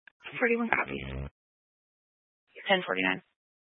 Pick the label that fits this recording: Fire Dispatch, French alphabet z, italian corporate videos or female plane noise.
Fire Dispatch